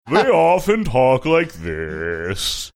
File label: saying they often talk like this funny